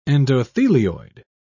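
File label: "endothelioid", British English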